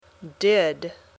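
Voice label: "did", English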